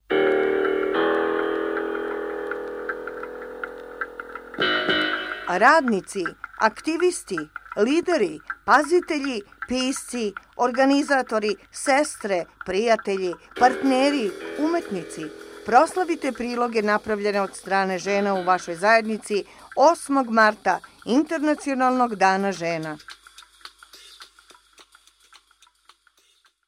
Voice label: Serbian